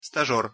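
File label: "stazer", Russian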